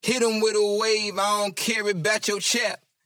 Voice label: Processed Phrase - Wave - 145 BPM, Vocal; Vocal Phrases; Cymatics; Euphoria Vocal Sample Pack